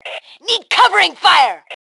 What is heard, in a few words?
Need Cover, Female